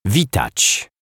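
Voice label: "witac", Polish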